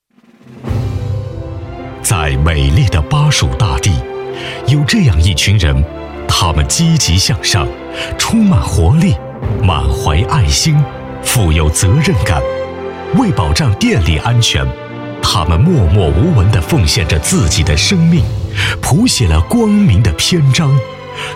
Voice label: 男 颁奖

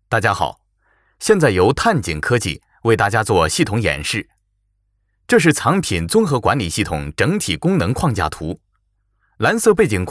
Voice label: 男 课件 藏品演示汇报

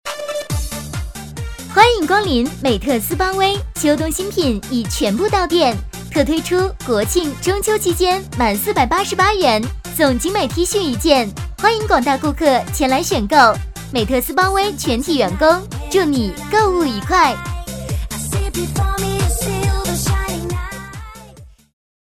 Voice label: 女 促销; 美特斯邦威

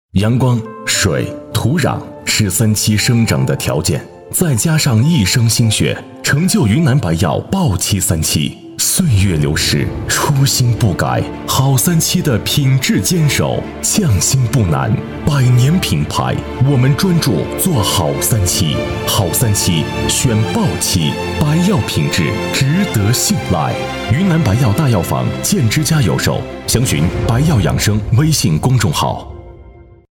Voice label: 男 广告; 云南白药豹七三七节F

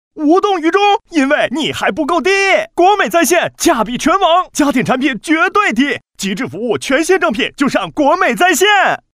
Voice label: 男, 广告, 幽默; 国美在线10秒广告

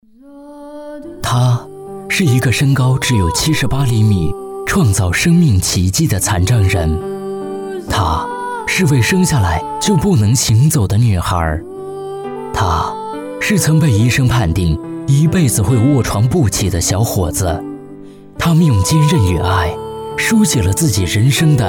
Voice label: 男, 旁白, 集体婚礼

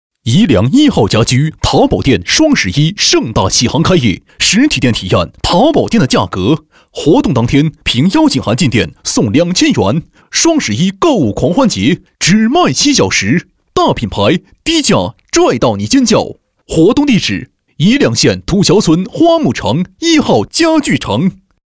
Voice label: 男 促销 激情冲击; 家居

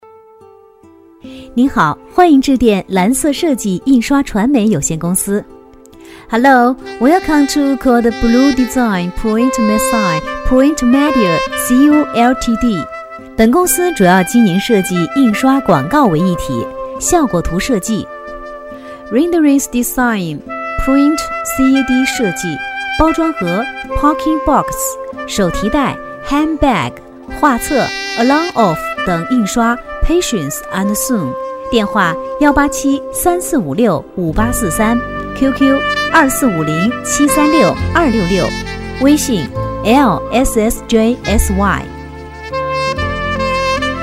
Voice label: 女 彩铃 中英双语彩铃

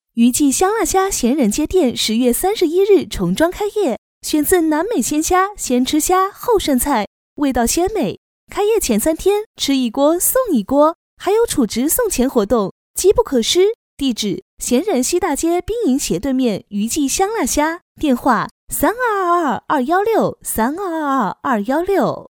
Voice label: 广告; 于记香辣虾

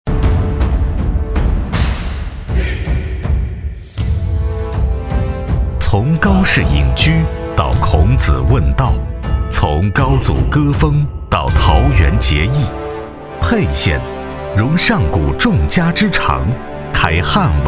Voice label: 男 专题 厚重 历史感 大气; 沛县经济开发区

